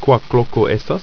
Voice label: "quaklok", Ido

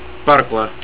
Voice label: PARKING, estonian

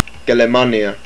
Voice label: "German", hawaiian

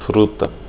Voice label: fruit, portuguese; word of day

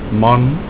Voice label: husband, Afrikaans

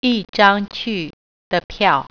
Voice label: ticket to, Mandarin

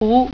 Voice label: "lake", Mandarin

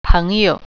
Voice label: "friend", Mandarin

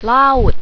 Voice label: ocean, malaysian

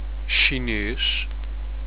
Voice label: chinese, europortuguese

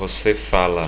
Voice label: do you spe, portuguese

